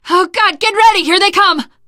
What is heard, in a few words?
incoming, teengirl; Left 4 Dead 2